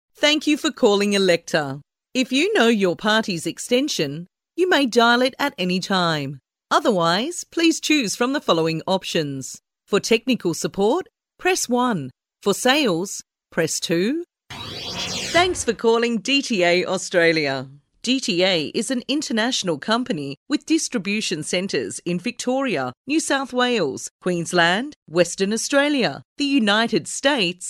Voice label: female australian voice over narrative

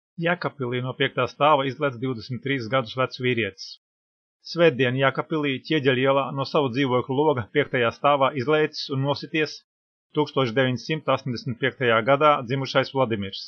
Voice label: male latvian voice over talent narrative

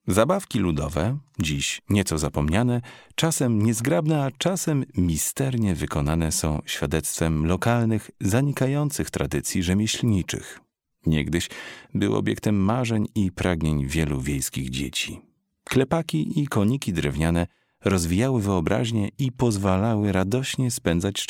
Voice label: male polish voice over talent narrative

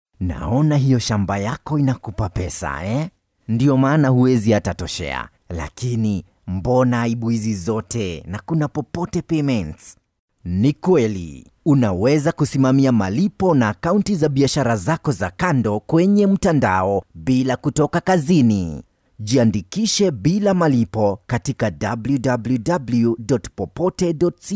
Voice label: male swahili voice over talent sales

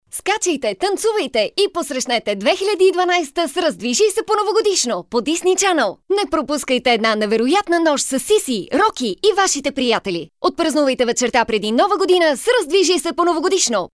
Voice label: female bulgarian voice over upbeat

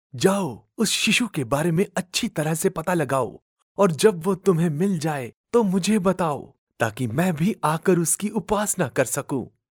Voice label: male hindi voice over character

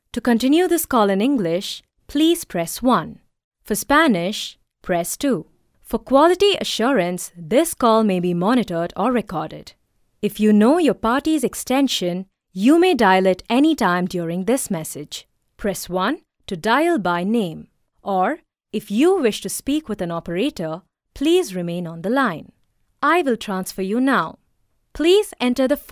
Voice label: female indian voice over talent upbeat

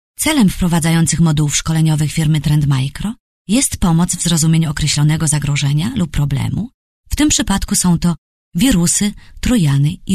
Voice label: female polish voice over upbeat

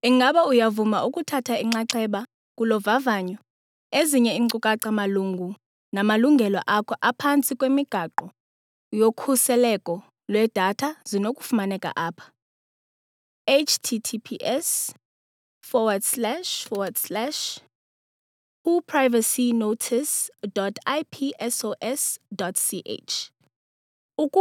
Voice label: female xhosa voice over narrative

